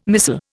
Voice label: "missal", Indian